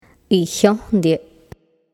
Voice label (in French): "Iyondie", canard